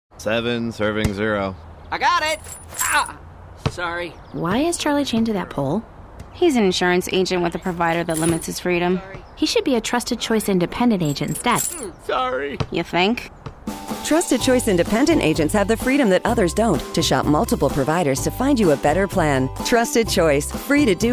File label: volleyball radio ad